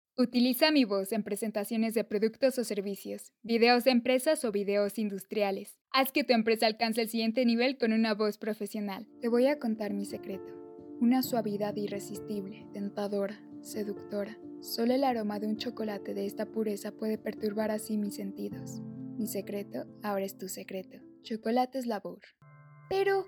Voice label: Spanish (LA), Female